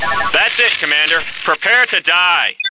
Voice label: Outpost, Computer Voice; X-COM